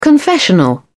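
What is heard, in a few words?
"confessional", British English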